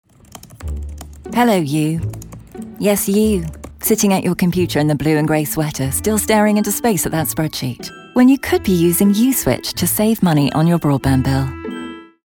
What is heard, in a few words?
straight talking; uswitch